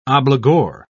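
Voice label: "obligor", British English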